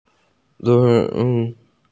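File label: "nv3", Mandarin Chinese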